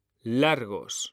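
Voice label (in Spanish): "largos", Spanish, male; mekanta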